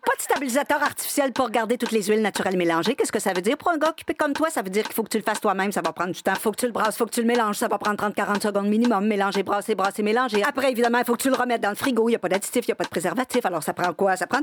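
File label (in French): Pub Beurre d'arachide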